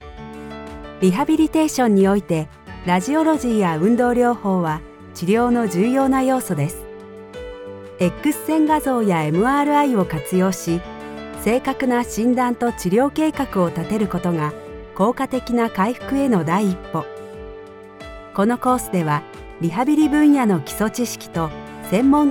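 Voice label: eLearning Confident, Voice Over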